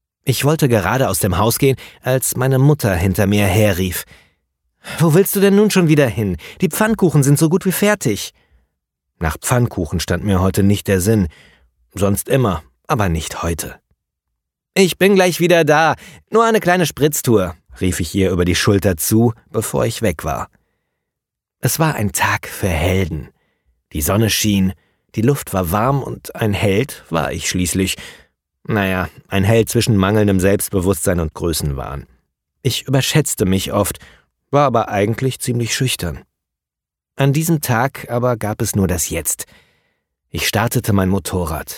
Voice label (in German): Hörbuch